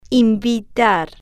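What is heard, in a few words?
"invitar", Spanish, female, slow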